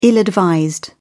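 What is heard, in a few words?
"ill-advised", English, British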